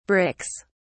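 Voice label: "bricks", English, British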